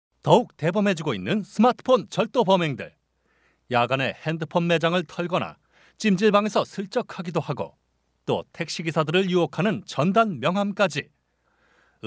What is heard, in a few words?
m